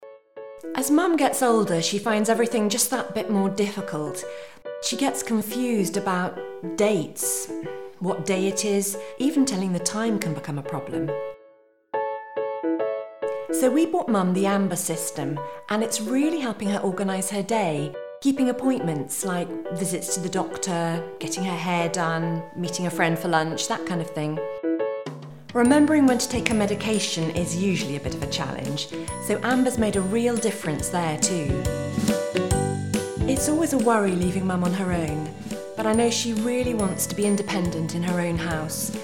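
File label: narration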